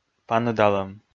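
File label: "паныдалӧм", Komi, male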